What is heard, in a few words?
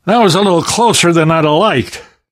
player transition close, voice; source engine; left 4 dead 2; namvet